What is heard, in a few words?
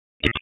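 Police Southwest District Dispatch; Raleigh Police Southwest District; Wake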